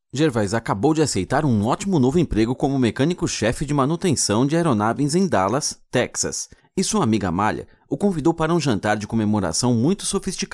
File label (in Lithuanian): VYR Portugalu balsas, vyras